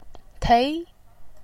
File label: "tei2", voice